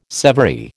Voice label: "severy", American

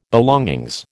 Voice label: "belongings", American

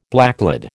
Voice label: "blacklead", American

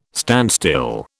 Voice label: "standstill", British

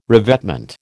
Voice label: "revetment", American

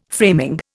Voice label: "framing", Indian

